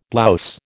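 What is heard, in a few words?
"blouse", American English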